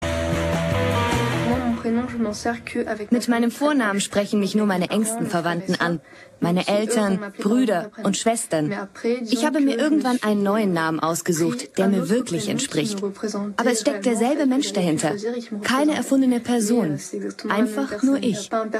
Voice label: VoiceOver